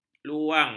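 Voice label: "luang", Malay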